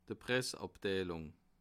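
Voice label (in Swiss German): "pressabteilung", Bas Rhin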